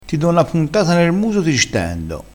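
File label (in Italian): "puntata", dialettale, crespinese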